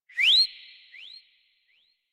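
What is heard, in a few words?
horse summon adult, English; Warframe; Duviri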